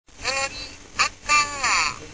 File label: kansai